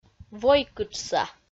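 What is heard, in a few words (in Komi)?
"войкытшса", Komi, female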